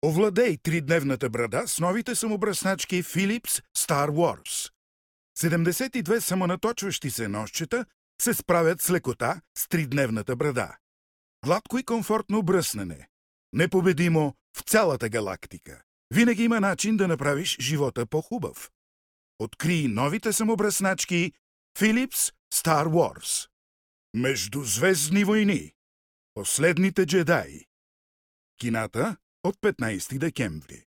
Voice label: bulgarian m commercial; voice demos